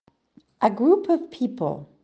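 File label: "a group of people", English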